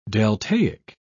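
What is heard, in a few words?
"deltaic", British English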